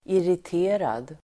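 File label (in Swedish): "irriterad", Swedish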